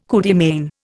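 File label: "coup de main", Indian English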